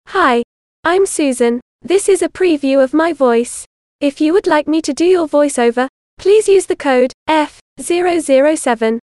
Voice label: Youth F